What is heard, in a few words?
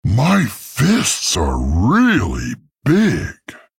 male tauren threaten